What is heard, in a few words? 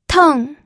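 "teng4", Mandarin Chinese pinyin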